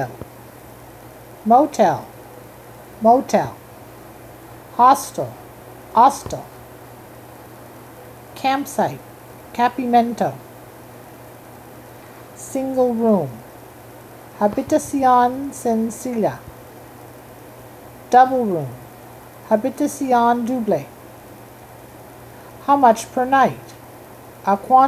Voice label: Spanish travel phrases